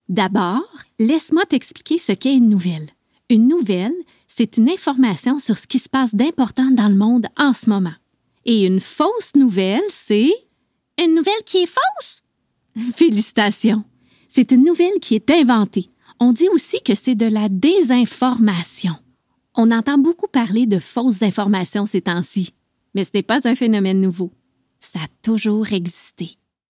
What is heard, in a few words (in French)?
Narration